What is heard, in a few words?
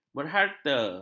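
"berharta", Malay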